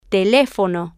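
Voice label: "telefono", Spanish, female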